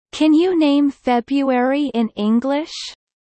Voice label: Kelly low; spring festival